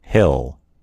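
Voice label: "hill", American English; gcompris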